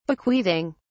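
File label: "bequeathing", English, British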